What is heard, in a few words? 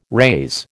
"raise", American